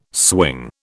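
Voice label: "swing", British English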